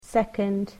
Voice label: "second", English

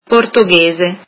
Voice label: "Portuguese", italian

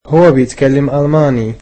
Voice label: "he speaks German", egyptian

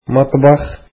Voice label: kitchen, arabic

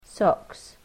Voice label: "socks", English

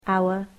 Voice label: "our", English

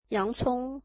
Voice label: "onions", mandarin

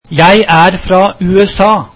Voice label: Im from the US, norwegian

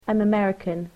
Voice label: "Im American", English

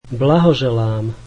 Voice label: Congratulations, slovak

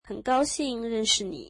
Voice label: nice to meet you, mandarin

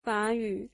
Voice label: French, mandarin

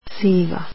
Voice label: grey, croatian

